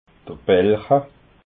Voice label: "belcha", alsace

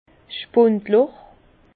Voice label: "spundloch", Bas Rhin; trou de bonde

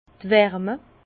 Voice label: "warme", Bas Rhin; vin; température

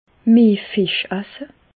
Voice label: fisch, Bas Rhin; medecine

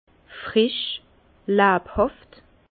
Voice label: "frisch", Bas Rhin; vin; vif

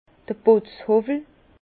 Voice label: "putzhowwel", Bas Rhin; vin; rabot de finition

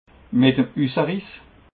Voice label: "met em usse riess", alsace

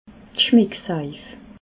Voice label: "schmecksaif", alsace

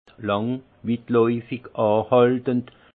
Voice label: "lang", alsace; vin; long

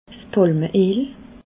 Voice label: "palme eel", alsace

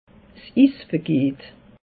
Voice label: "is", alsace; meteo

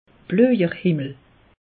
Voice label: "blojer", alsace; meteo

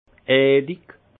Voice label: "eelig", alsace; vin; onctueux

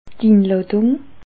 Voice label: "inladung", alsace

